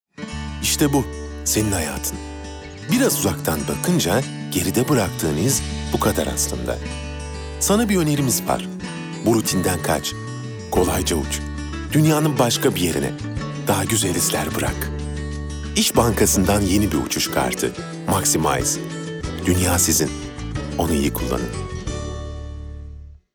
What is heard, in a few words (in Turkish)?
enerjik esprili; seslendirme